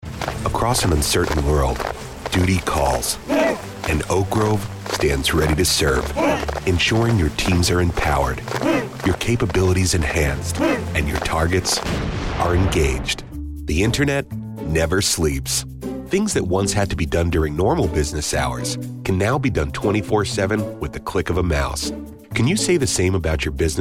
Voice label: Narration